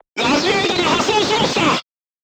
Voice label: voice message radiator damaged, crew tanks japanese